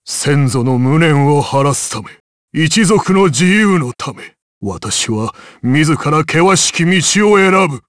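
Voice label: voice, Japanese; Dakaris; kingsraid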